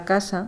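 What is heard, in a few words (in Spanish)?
"casa", Spanish, Madrid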